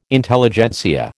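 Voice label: "Intelligentsia", American English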